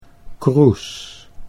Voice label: "Kroes", Dutch

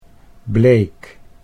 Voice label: "bleek", Dutch

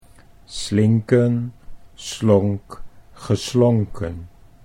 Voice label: "slinken slonk geslonken", Dutch

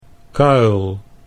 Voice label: "kuil", Dutch

